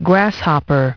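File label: "grasshopper", English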